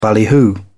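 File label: "ballyhoo", British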